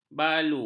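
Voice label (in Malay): "balu", Malay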